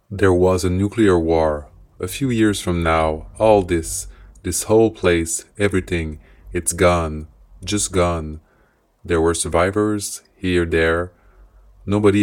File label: test audio english